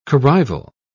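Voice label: "corrival", British English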